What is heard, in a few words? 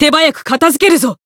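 encounter, Japanese; buria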